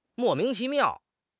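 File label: "莫名其妙", Mandarin Chinese; HSK6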